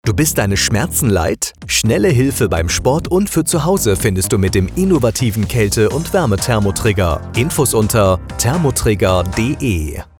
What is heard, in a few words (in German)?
Funkspot; Thermotrigger